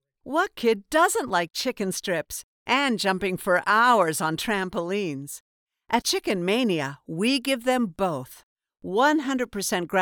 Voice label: Fast Food Fun Family Quicker High tone, voiceover